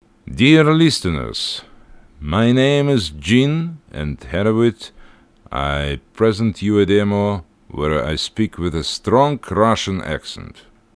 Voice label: Russian Accent; foreign languages

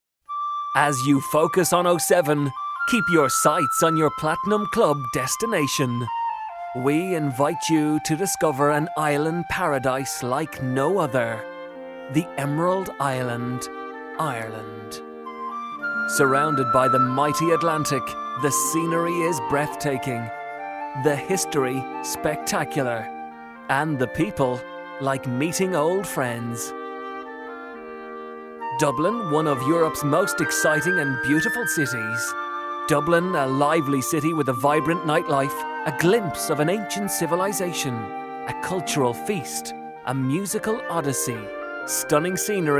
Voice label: irish

